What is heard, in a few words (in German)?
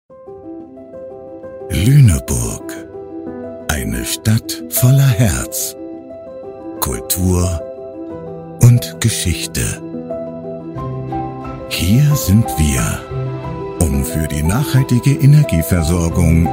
imagefilm; lunestrom